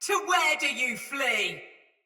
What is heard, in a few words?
Sight Lost Shout Soldier Mace Agent Female